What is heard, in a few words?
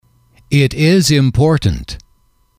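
"it is important", English, male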